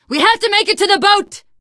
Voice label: arrive boat, teen girl, survivor voice; source engine; left 4 dead 2